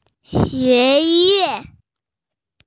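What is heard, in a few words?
"xue yuan" Chinese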